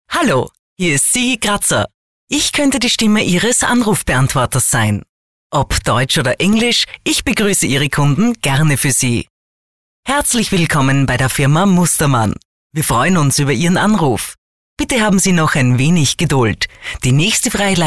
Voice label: Sprecher Telefonansagen

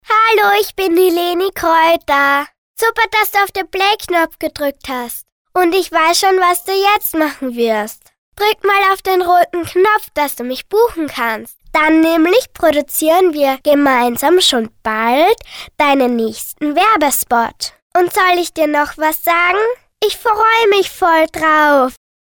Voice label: Sprecher Kinder Neutral; Kinderstimmen; kleine Profisprecher

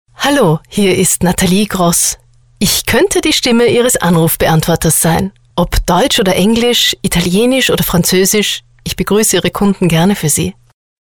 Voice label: Sprecher Telefonansagen

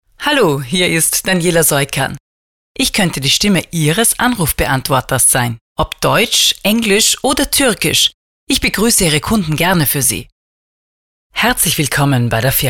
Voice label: Telefonansagen

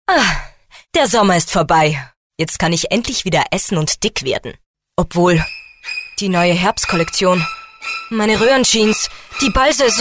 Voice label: Sprecher Showreel

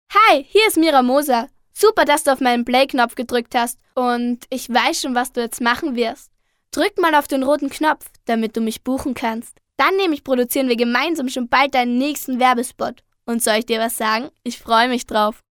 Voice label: Sprecher Kinder Neutral; Kinderstimmen

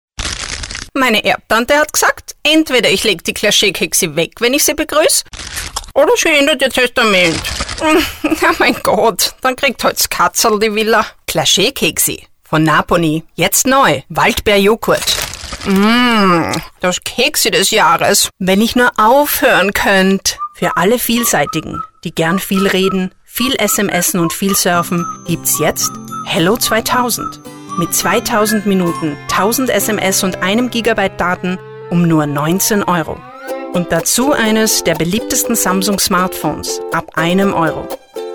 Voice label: Sprecher Showreel

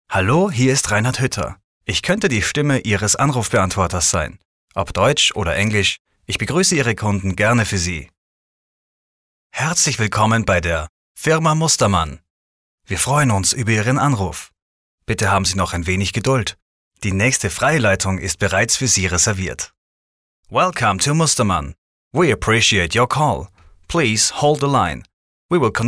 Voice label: Telefonansage; Professionelle Sprecher

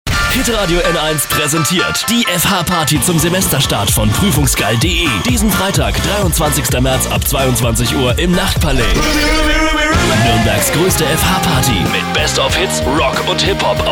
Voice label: Sprecher Showreel

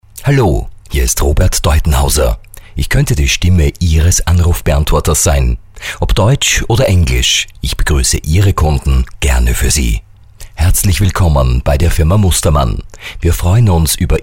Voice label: Sprecher Telefonansagen

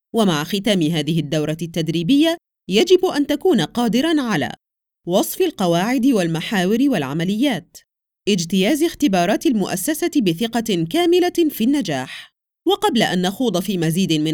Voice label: Sprecher Native speaker

